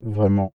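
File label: male "vraiment" flattened transformed, French; CLEESE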